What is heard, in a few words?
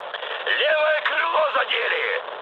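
Russian left wing mood high, speech; War Thunder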